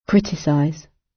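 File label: "criticize" British; Flora and fauna, agriculture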